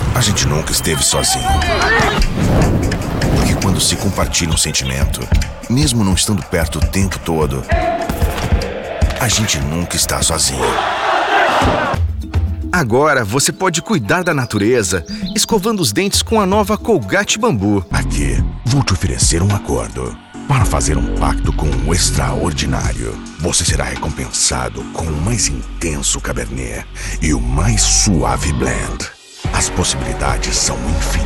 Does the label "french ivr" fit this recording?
No